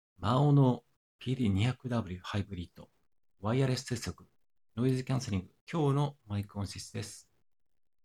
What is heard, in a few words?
maono pd200w hybrid Wireless microphone sound quality